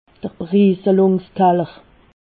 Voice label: "berieselungskaller", alsace